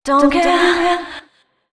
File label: female 130, Dance Ultra Voice, short hooks; Mutekki Media; Dance Ultra Voice VOL-1